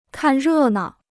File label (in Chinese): "看热闹", Chinese